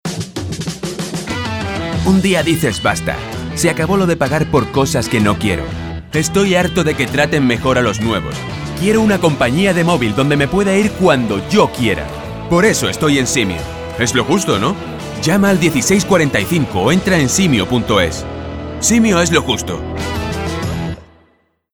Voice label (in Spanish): Demo locutor